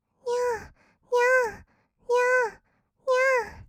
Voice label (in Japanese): にゃあにゃあ, kawaii voice; 合いの手; 1200 kawaii voice!!; ASMR